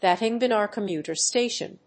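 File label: "Batang Benar Komuter station", English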